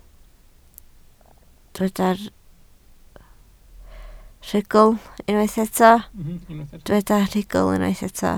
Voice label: "rhugl", Welsh

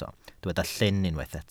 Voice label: "llyn", Welsh

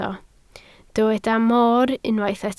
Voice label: "moor", Welsh

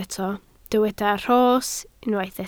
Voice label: "rhos", Welsh

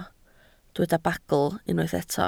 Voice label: "bagl", Welsh

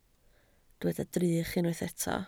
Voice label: "drych", Welsh

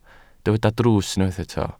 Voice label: "drws", Welsh

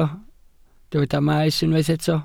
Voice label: "maes", Welsh

